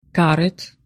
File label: "outside", georgian